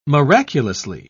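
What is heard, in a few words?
"miraculously", British English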